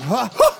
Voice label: "huh huh" male; ooh ahh; heh huh